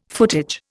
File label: "footage", Indian